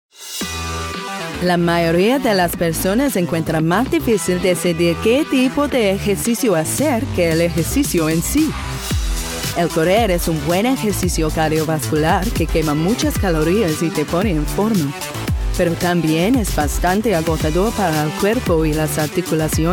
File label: Spanish